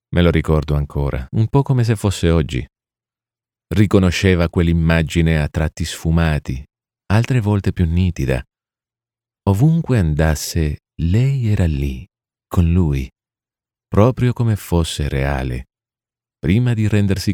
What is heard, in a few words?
italian commercial